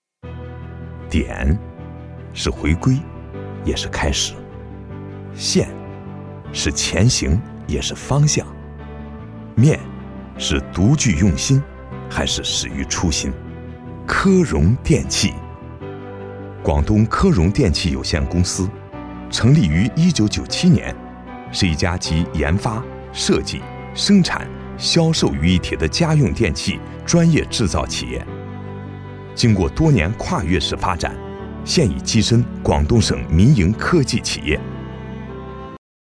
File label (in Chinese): 男 企业宣传; 科荣